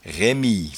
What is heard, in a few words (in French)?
"raimie", patois jura